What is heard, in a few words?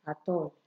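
"at all", Nigerian Pidgin, female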